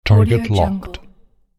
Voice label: military voice target locked

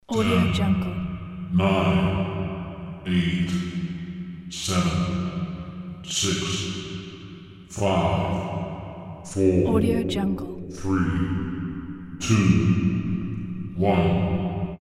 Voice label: countdown in big hall

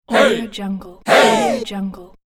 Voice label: hey male vocal samples

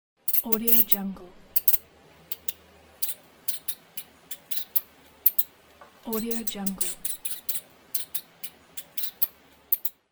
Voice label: cartoon voice mouse

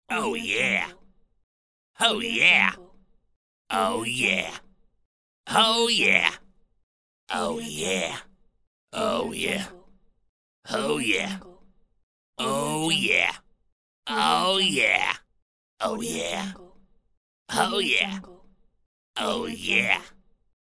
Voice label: grumpy cartoon oh yeah pack

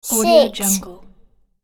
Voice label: boy says "six"

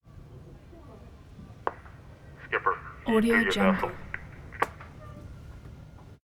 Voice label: coast guard announcement

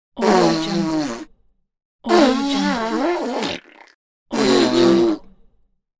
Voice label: child mocking

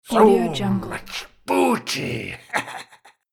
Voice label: pirate says so much booty

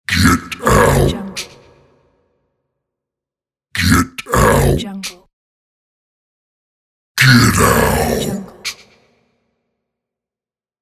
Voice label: evil spirit saying get out

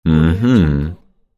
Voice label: male confirmation voice

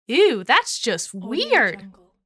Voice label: female voice ooh thats just weird

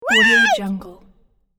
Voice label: cartoon elf says waaait; cinematic-designs

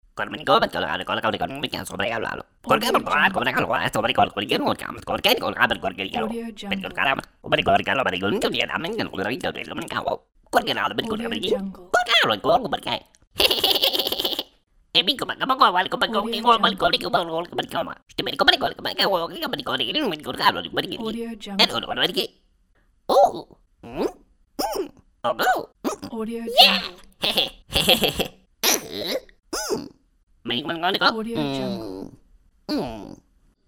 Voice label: cartoon talking mumbling

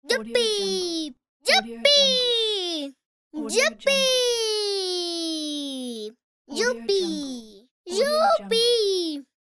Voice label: little girl saying yuppie pack

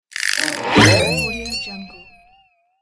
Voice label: funny accent

